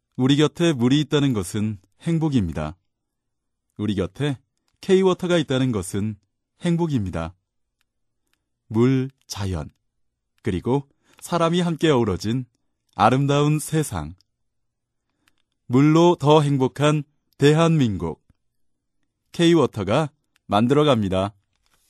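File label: Korean, male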